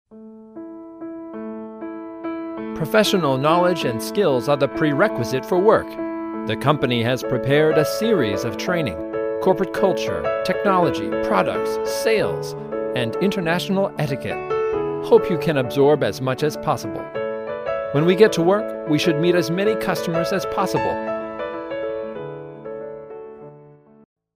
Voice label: 外籍英男, 岗位推荐配音

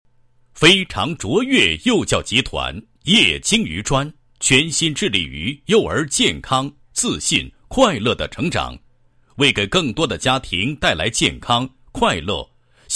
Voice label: 男 开幕词, 配音; 非常卓越幼教集团会议开幕词

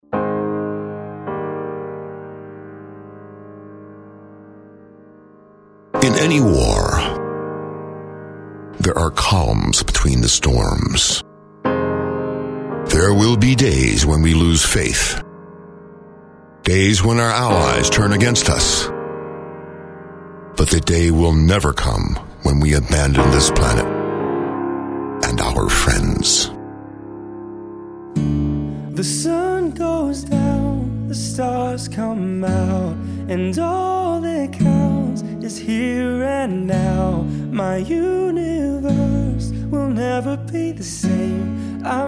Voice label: 模仿; 外语; 擎天柱

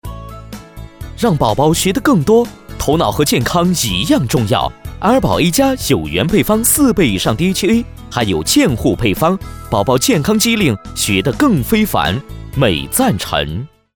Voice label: 男 广告; 美赞臣

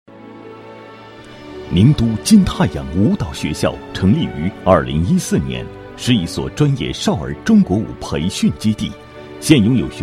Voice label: 男 专题 学校

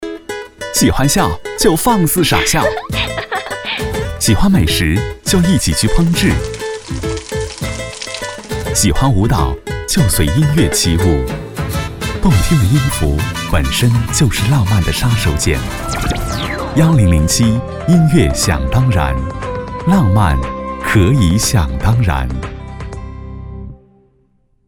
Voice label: 男 电台片花 年轻时尚; 音乐想当然

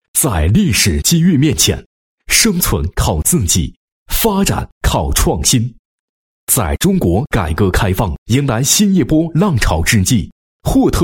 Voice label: 男-教育机构-国际商学院, 配音案例; 霍特国际商学院

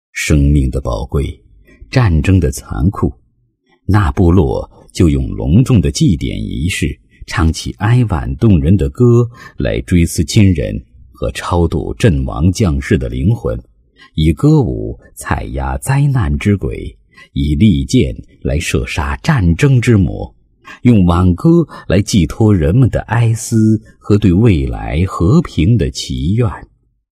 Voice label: 男 文化 纳西古乐白沙细乐宣传; 云南丽江纳西古乐白沙细乐宣传

